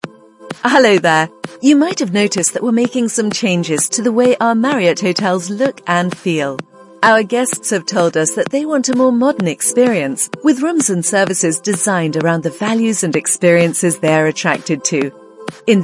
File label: Explainer, british voice